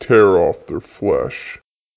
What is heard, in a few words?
orc man